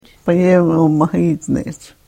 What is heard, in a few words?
karuk